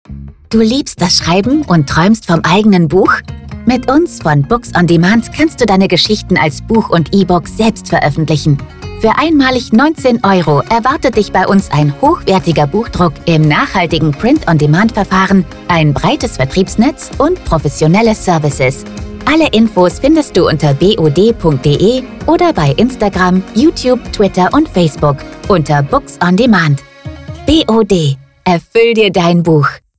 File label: SPOTIFY SPOT